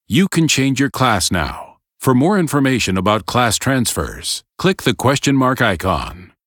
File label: tutorial voice; lineage2